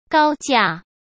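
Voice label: "高价", Mandarin Chinese; chinese-flashcards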